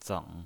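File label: "zeng" Mandarin Chinese pinyin voice, third tone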